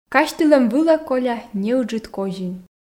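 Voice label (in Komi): казьтылӧм вылӧ коля неыджыд козин, Komi, female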